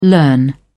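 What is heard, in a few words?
"learn", English, British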